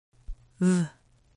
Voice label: ð, phonetics